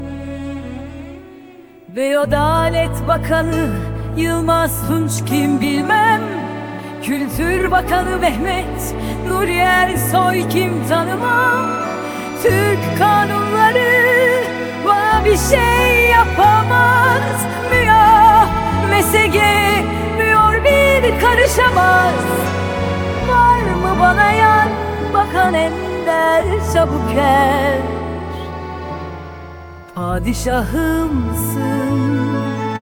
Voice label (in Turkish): Kadin